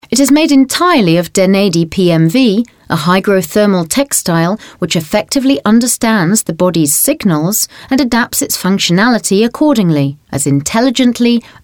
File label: VOICE ARTIST specialist info. film